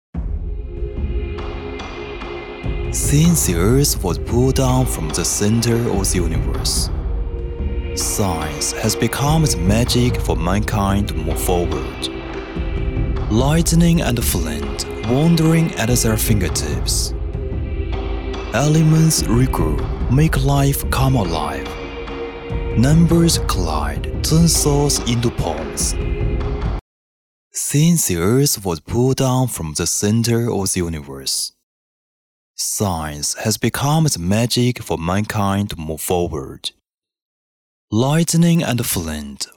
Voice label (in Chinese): 英文商业广告房地产低沉磁性